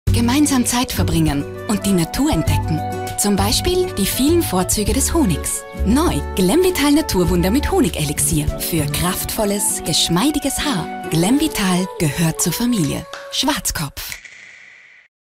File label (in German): Frau OFF